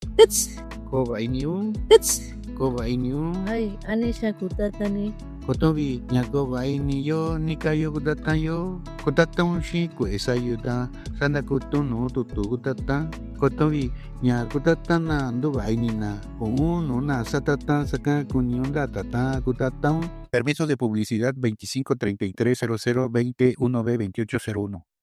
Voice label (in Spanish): Spot Radio Mixteco de Guerrero; mexico; salud inclusiva